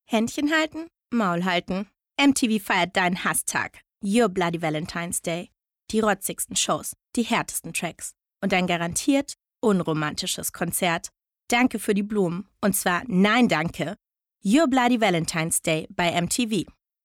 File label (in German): Werbung frech; MTV